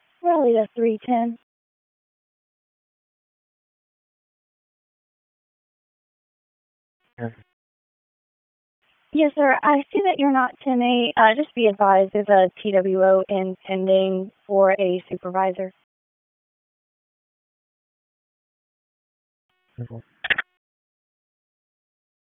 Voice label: Raleigh Police Northeast District Disp, P25 recordings